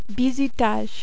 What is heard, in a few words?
"bizutage", French